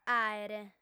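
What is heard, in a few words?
"àere", Sardinian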